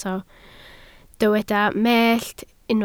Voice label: "mellt", Welsh